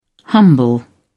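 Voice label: "humble", English, British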